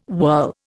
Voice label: "whirl", Indian